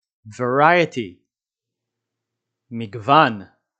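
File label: "variety" English, "מגוון" Hebrew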